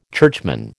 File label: "churchman", American